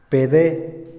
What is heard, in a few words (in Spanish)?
contar, Otomí, hombre; INALI